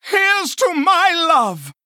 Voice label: Male Human Play